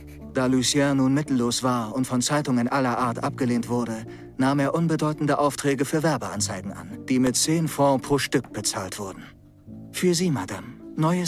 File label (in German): Synchron Erzaehler; Verlorene Illusionen